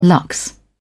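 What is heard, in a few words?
"lux", British English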